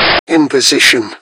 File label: grunt; svencoop; angel